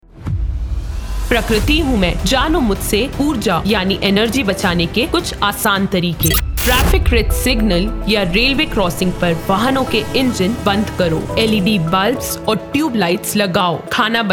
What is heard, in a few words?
mission life save energy promo; radio4child awards 2024